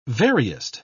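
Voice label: "veriest", British English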